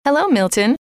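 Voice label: "hello", female; trainer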